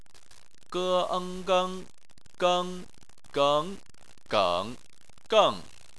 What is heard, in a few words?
"geng", chinese